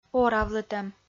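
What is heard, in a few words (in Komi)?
"оравлытӧм", Komi, female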